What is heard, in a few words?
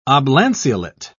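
"oblanceolate", British English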